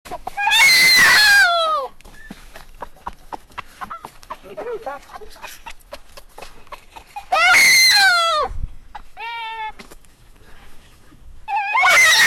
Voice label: a 18 year old young; animals

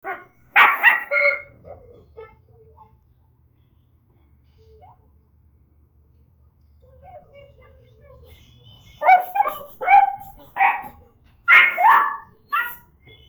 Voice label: a 23 year old man playing; animal

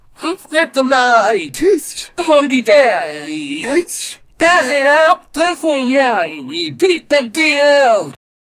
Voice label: short energeti, voice over

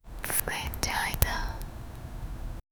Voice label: a female voice whispering

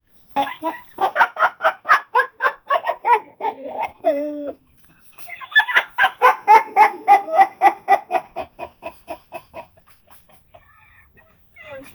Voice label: a 23 year old man playing

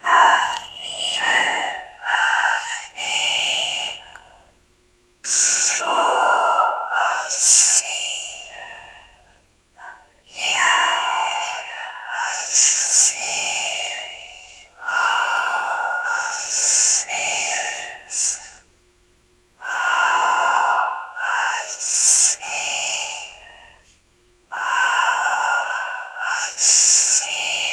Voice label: female whisper illegible; ancient language

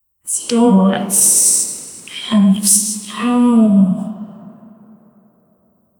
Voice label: ghostly woman saying; skul

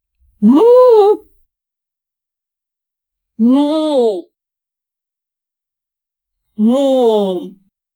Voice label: a hairdresser who happily, greeting

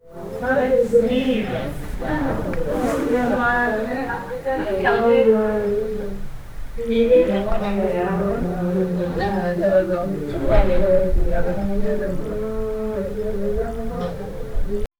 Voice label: people having a convers, background chatter

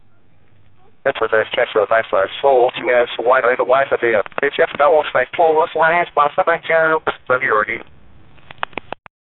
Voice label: police radio make call

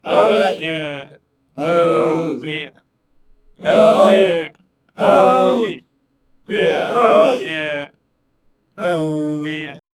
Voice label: group of men over gaming, agreement

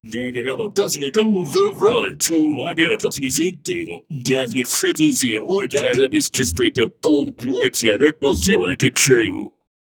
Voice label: a voice over male high, announcer

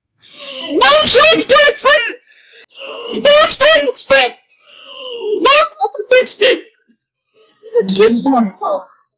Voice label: angry shouting furious

